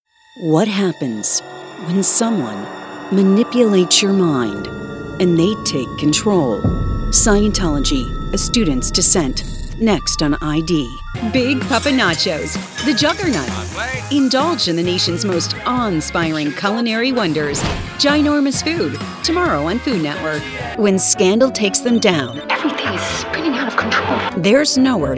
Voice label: Promo